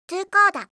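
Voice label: "tocoda", Japanese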